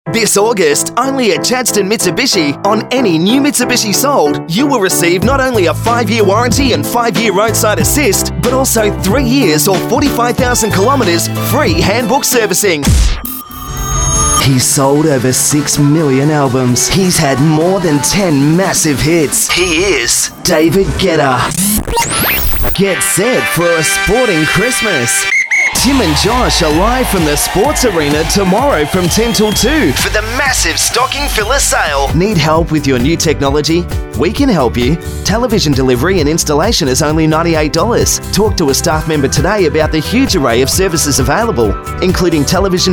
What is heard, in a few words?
male talent retail